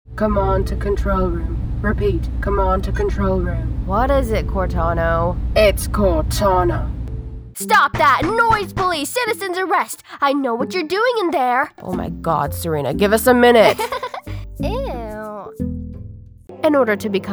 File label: Character